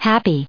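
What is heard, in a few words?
"happy", US English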